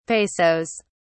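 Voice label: "pesos", British English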